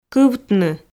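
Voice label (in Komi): "кывтны", Komi, female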